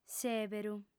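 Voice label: "sèberu", female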